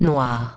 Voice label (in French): "black", French; noir